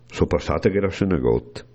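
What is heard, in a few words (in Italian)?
Só passàt e ghéra sö negót, bergamasco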